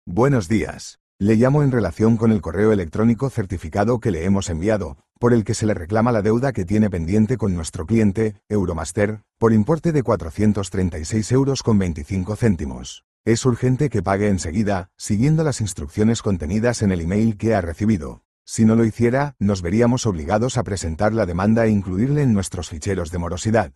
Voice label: audio call